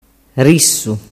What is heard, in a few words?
"rissu", dialettu